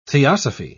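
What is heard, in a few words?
"theosophy", British English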